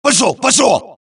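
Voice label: suppress, dialogue, Allies; mohaa; Mission 3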